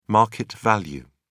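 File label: "market value", English, British English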